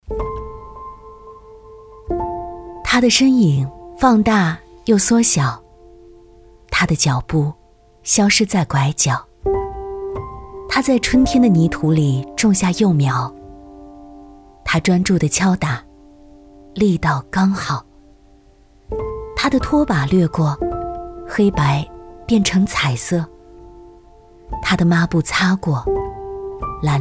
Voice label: 女; 邦泰

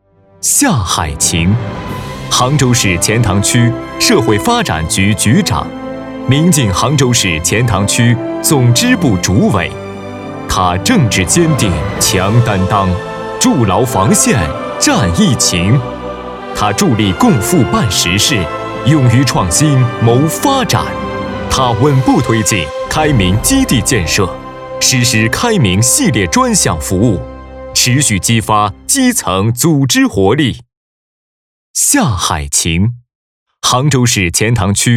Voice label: 男, 颁奖, 高级大气; 开明先锋颁奖词

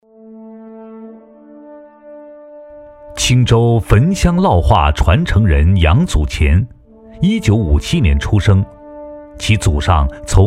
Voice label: 男 人物专题 样音; 青州焚香烙画传承人杨祖前

